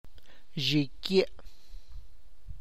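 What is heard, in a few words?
tlacochahuaya, Zapotec; my cranium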